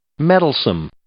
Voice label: "mettlesome", English